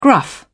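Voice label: "gruff", British English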